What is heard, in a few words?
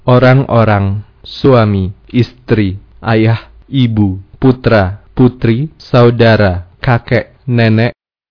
indonesian people